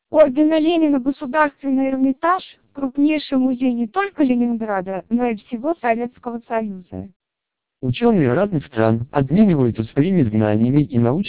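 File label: Russian speech, TWELP 700 bps vocoder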